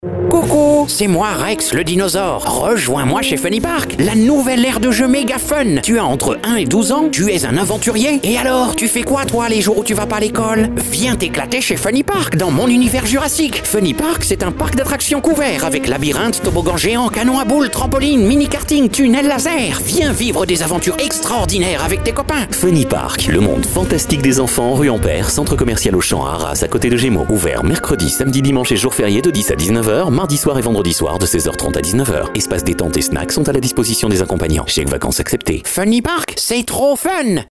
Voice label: Voix Hom Cartoons

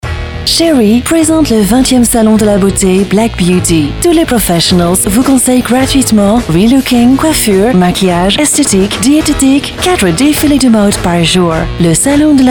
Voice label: Voix Fem Accent Americain

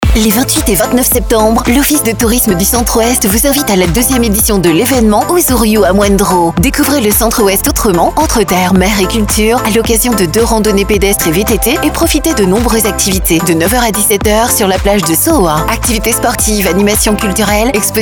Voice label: VOIX FEMME Adulte Cool Sof Posee Souriante Sympa

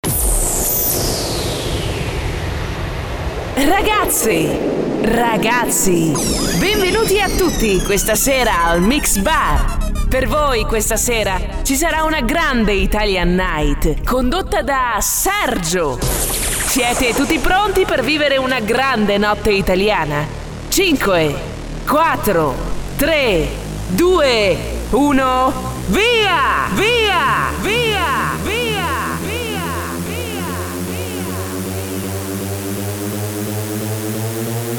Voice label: Voix Fem Italienne Jeune

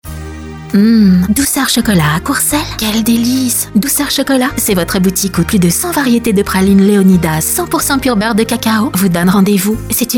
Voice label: VOIX FEM

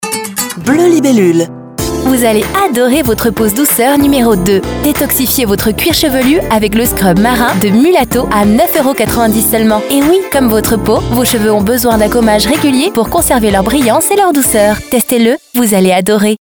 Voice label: VOIX FEM

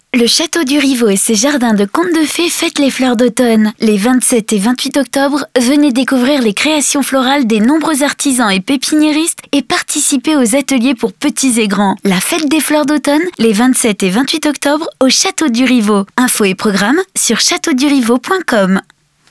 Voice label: VOIX FEM Jeune Douce

